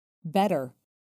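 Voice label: "better", American English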